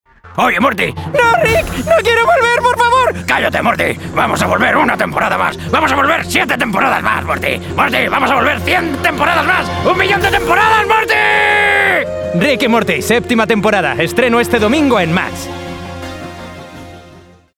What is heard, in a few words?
Animation, Impression, Acting, Comedy, Spanish; Rick and Morty